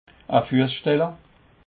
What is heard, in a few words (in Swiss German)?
"fuesstelle", alsace